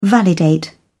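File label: "validate", British English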